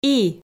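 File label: "и", Komi, female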